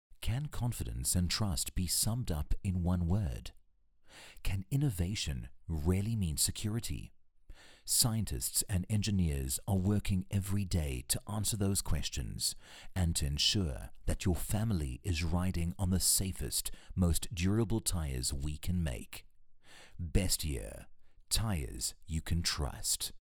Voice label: English Serious Very Corporate